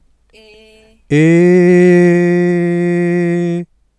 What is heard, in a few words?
cerebataxia father